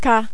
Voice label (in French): "k", French